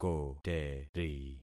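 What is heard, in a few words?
"koteri", concatenated syllables; Affixing experiment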